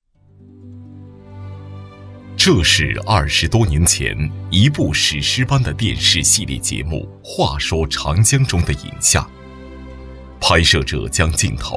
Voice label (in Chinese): 纪录片, 音乐; 再说长江